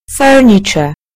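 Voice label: "furniture", British English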